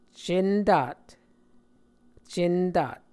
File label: "centat", muscogee